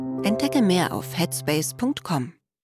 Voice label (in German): claim werbung